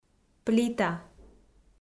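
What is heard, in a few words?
"плита", Komi, female; kpv audio dict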